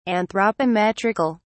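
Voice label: "anthropometrical", English, British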